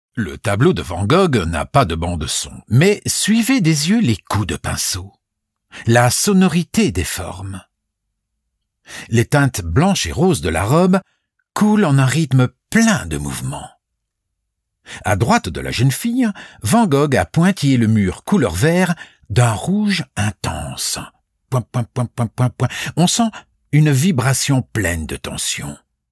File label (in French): Audioguide; VAN GOGH